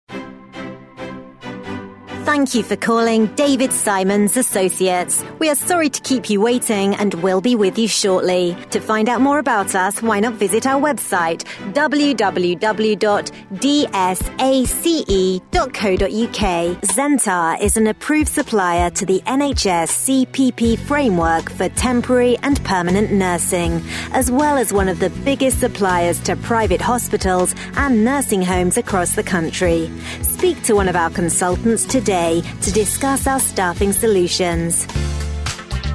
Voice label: on-hold